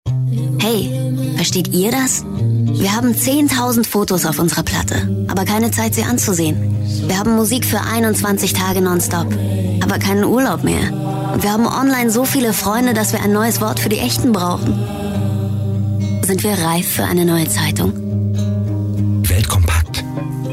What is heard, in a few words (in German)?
Werbung Freundlich